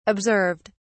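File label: "observed", British English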